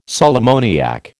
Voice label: "sal ammoniac", American

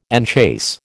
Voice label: "enchase", American

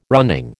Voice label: "running", American

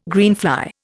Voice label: "greenfly", Indian

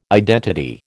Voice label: "identity", American

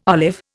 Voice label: "olive", Indian

